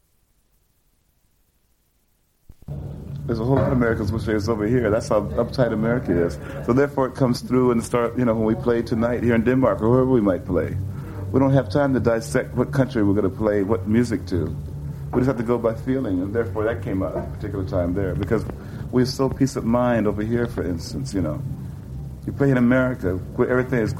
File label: Danish Radio Broadcast